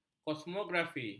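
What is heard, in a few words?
"kosmografi", Malay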